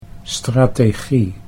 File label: "strategie", Dutch